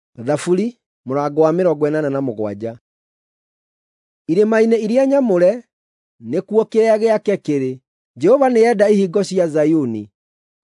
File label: psalms, gikuyu drama